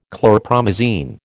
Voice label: "chlorpromazine", American

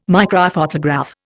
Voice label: "microphotograph", Indian

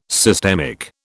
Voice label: "systemic", British

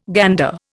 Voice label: "gander", Indian

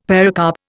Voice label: "pericarp", Indian; Dictionary

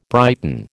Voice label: "brighten", American

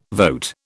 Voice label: "vote", British

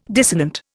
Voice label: "dissonant", Indian

